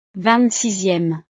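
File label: "ving sixieme", French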